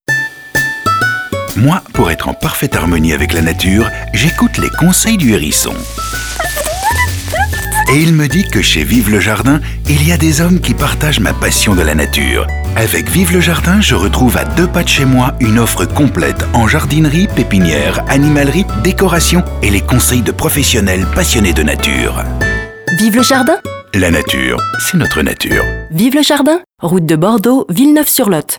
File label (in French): Bienveillante, voix off; VIVE LE JARDIN